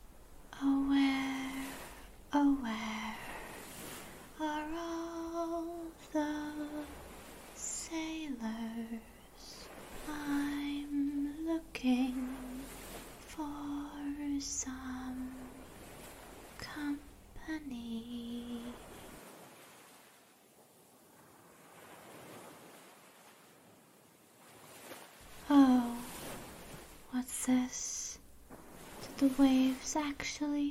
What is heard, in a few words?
[F4F] [Soft-Spoken][Flirty]; Domme Siren Seduces You; Cunnlingus